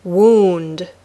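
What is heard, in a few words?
"wound", English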